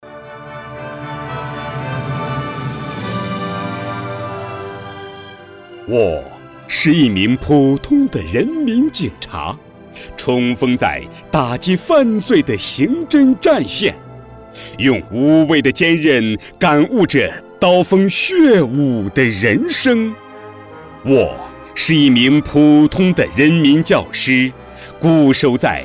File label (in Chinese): 朗诵 样音